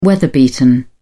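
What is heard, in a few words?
"weather-beaten", British English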